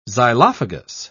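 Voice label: "xylophagous", British English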